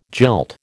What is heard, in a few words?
"jilt", American